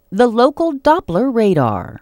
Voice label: The local Doppler radar, female, narrations; Weatherscan